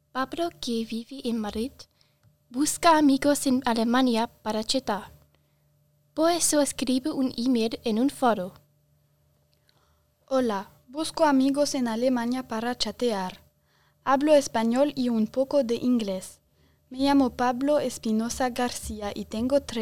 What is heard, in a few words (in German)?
spanisch